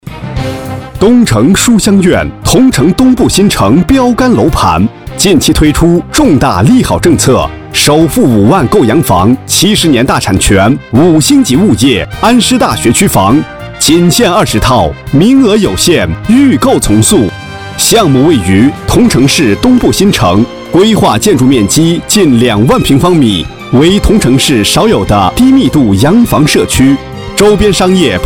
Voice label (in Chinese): C男 电梯广告; 东城